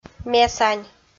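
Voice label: "месань", Komi, female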